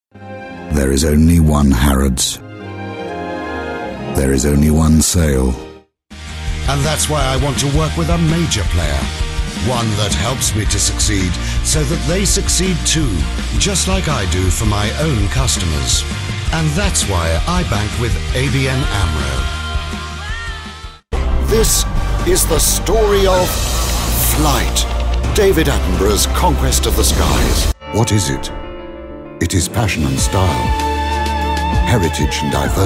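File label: British English showreel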